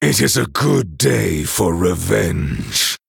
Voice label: Male Night Elf Picked